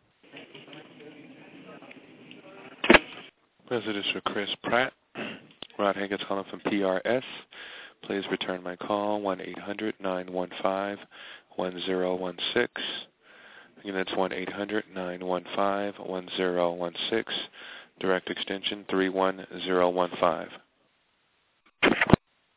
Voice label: voicemail